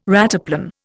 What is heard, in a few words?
"rataplan", Indian